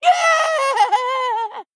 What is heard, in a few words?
Male Gnome Death